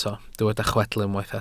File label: "chwedl", Welsh